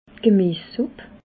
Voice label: "gemies supp", alsace

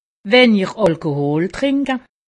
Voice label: "alkohol", alsace; medecine

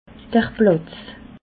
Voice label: "platz", alsace

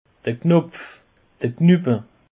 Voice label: "knopf", alsace; peche

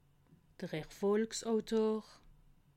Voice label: "erfolgsautor", alsace

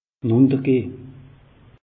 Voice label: "nuntergehn", alsace; meteo

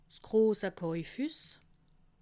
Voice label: "kaufhuss", alsace

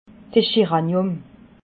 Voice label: "scheranium", alsace

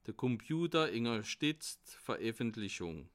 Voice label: "komputerunterstitzt", alsace

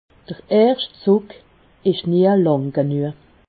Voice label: "zug", Alsace

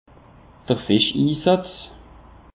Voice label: "fischinsatz", alsace; peche

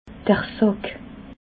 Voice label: "sack", alsace

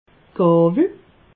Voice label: "gawwel", alsace

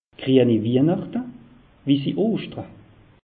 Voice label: "grieni wihnachte", alsace; meteo

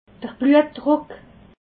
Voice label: "bluetdruck", alsace

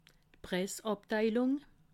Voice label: "pressabteilung", alsace

